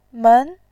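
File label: "men", Mandarin Chinese, second tone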